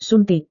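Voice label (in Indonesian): "suntik", Indonesian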